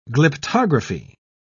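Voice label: "glyptography", British English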